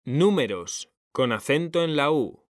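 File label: "números", Spanish; mekanta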